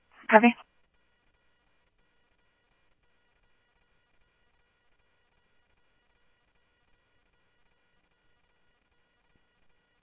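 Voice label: Simulcast police department, scanner audio trunk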